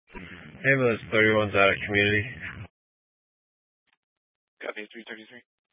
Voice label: Fire Dispatch

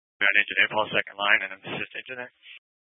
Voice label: Incident Tac 1, Scanner audio recording

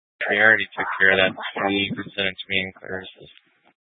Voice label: Police Dispatch, scanner

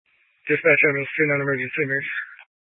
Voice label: Fire Dispatch, scanner

